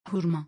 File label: dates in turkish; turkish language learning